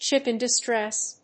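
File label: "ship in distress", English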